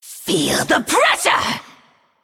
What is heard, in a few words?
Female Naga Attack